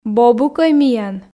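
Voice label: "бобукӧй миян" Komi, female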